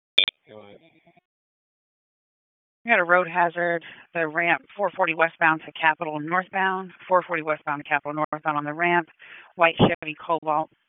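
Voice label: Raleigh Police Northeast District Dispatch, p25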